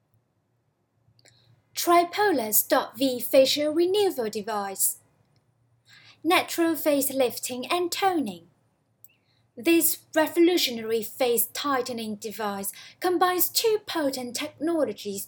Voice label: 英式英语－广告词

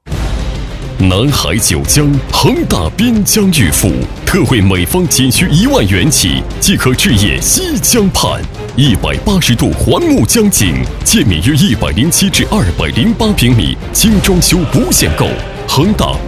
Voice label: 广告 大气 房产; 滨江

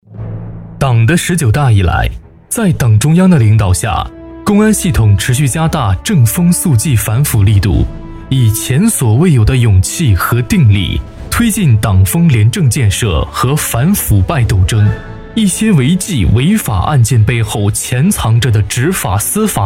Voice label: 党建解说 刚正不阿; 反腐题材

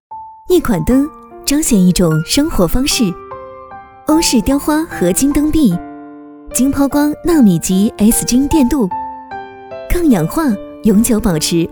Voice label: 淘宝广告灯具

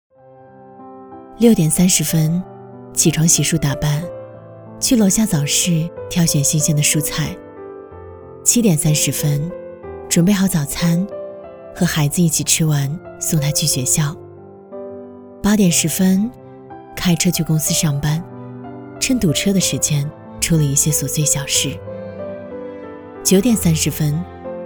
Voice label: 走心旁白; 她的一天

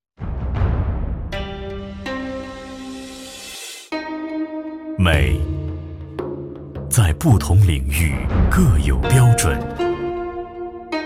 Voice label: 花生油 广告; 胡姬花花生油广告

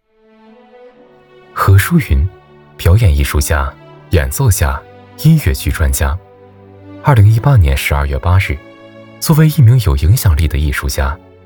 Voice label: 年轻温暖讲述

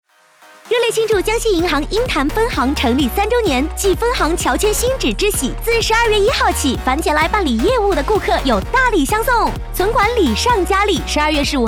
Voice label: 广告少女

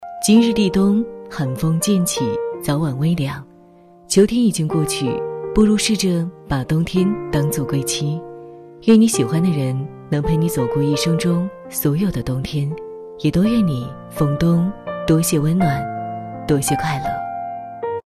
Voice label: 娓娓道来 舒缓 情感 语录

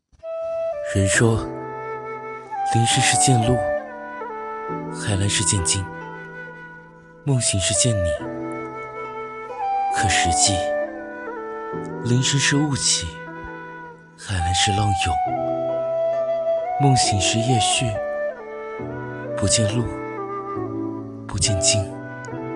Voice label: 偏念诗向

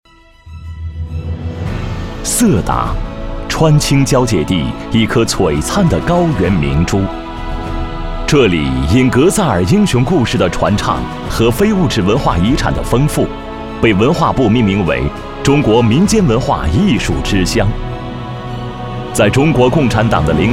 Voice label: 纪实; 色达县创建全国民族团结进步示范县

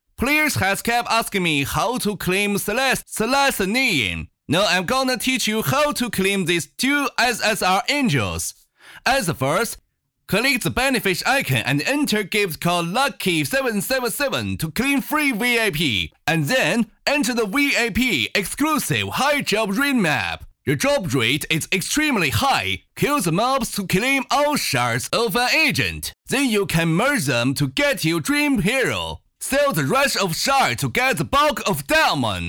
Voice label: 福利口播

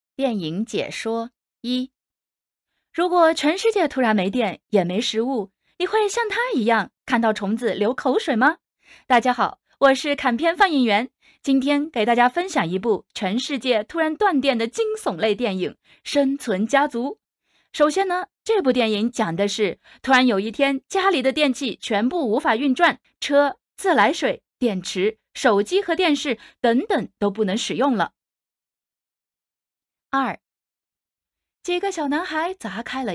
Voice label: 电影解说

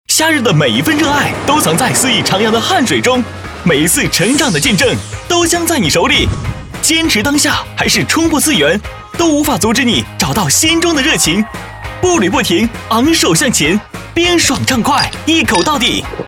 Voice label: 冰红茶宣传广告

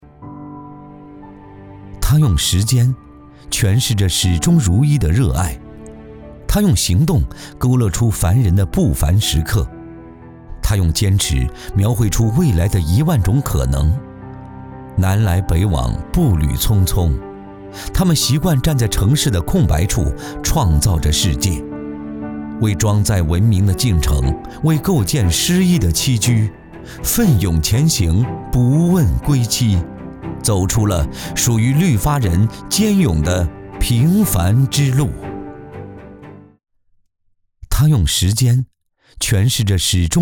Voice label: 走心 旁白 励志; 男生配音; 励志文案配音

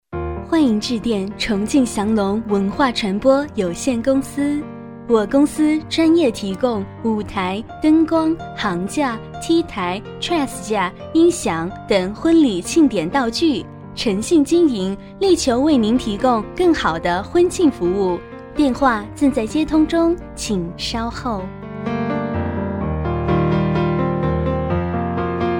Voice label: 企业彩铃; 重庆翔龙有限公司

